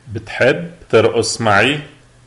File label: dance, lebanese, arabic